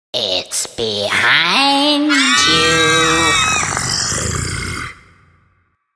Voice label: It's Behind You, It's Behind You Spoken in mocking voice with female screams and; It's Behind You Spoken in mocking voice with female screams and monster growl.; Evil Voice